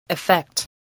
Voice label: "effect", English